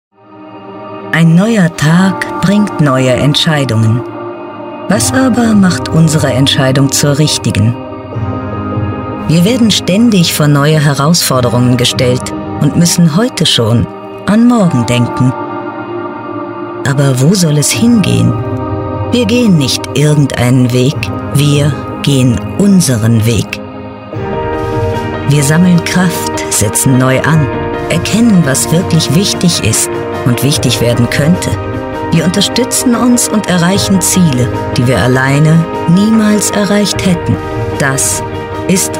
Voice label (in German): Imagefilm Steuerkanzlei; Sprecher in Hamburg; Muttersprache Deutsch